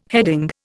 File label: "heading", Indian